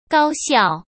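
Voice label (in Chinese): "高效", Mandarin Chinese; chinese flashcards; hsk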